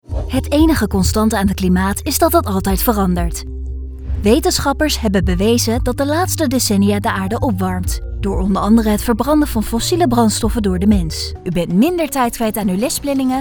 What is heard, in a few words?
Corporate